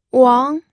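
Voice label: "wang2", Mandarin Chinese, pinyin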